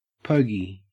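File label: "pogey", Australian English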